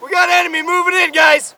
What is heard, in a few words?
spot; bf3